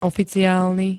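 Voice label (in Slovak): "oficiálny", Slovak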